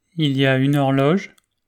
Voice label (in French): "horloge", French